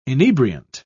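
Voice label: "inebriant", British English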